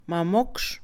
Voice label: Mixe, Mujer; quince; Números; INALI

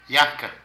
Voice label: Palo, Zapoteco de Petapa; Agricultura; Vocabulary

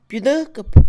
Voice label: apoyar, Mixe, Mujer; Posesión; INALI

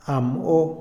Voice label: mil, Otomí, hombre; INALI

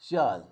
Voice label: nariz, Zapoteco, Magdalena Ocotlan; Cuerpo; INALI; Haspelmath

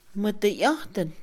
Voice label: acertar, Mixe, Mujer; INALI

